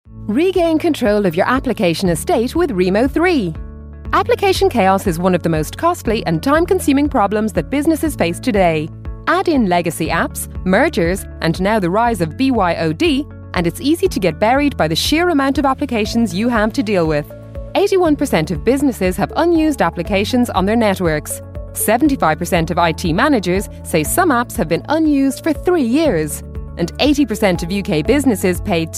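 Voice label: Irish female, corporate upbeat, Southern Irish Dublin Voice Smooth Warm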